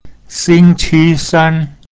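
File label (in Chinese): "xing qi san", Mandarin Chinese pinyin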